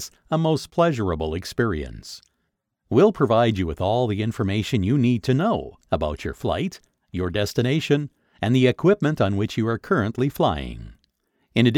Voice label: english american corporate

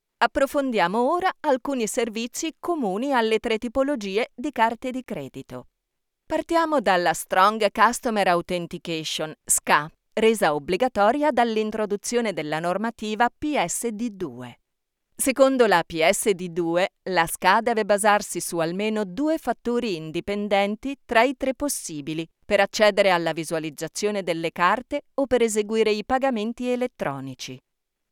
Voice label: italian e-learning

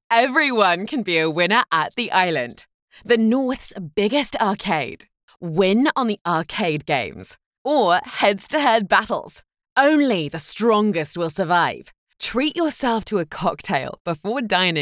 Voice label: english british announcements; voice actor

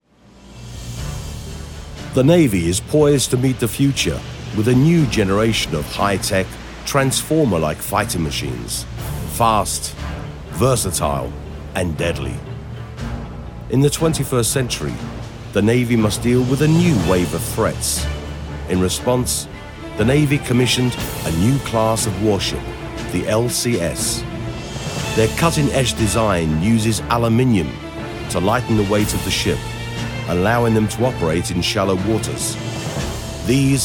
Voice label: english british documentaries

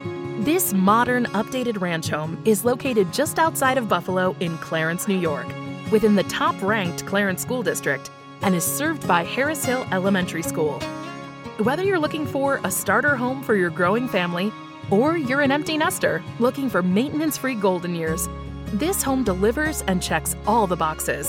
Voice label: english american real estate; United States; American female voice

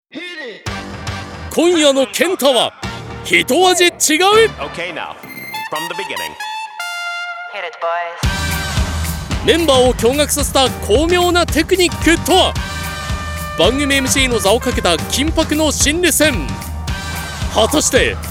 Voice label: japanese tv shows

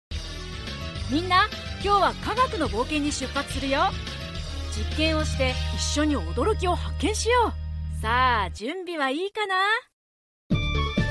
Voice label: japanese e-learning

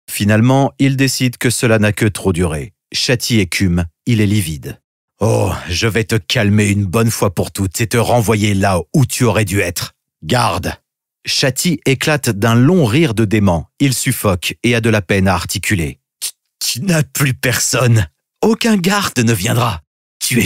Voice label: french audiobooks

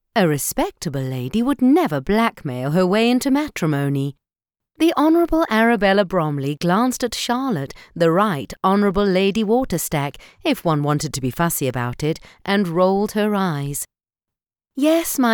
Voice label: english british audiobooks; professionelle englische Synchronsprecherin

